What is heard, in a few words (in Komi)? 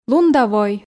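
лун да вой, Komi, female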